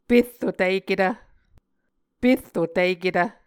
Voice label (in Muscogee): "perro tiketv", Muscogee